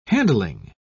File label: "handling", pronounce